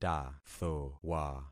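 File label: "tathowa", concatenated syllables; Affixing experiment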